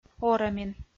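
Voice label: "орӧмин", Komi, female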